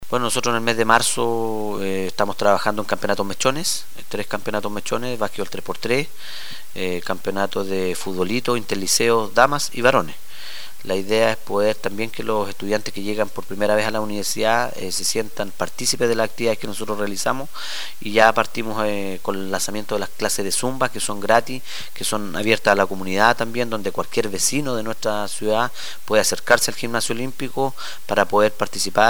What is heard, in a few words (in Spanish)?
Cuña